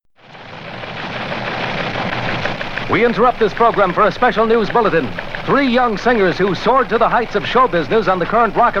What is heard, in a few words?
Newscast About Plane Crash